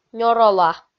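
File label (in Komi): "нёрола", Komi, female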